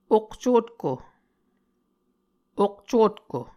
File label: "okcotko", Muscogee